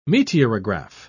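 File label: "meteorograph", English, British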